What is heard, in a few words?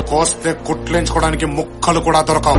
Dialogue Ringtone; NTR; Koste Kutlenchukodniki